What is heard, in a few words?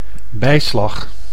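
"bijslag", Dutch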